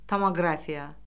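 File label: "tomoqrafiya", Azerbaijani; Orfoqrafiya Lüğəti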